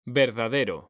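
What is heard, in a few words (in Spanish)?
"verdadero", Spanish; mekanta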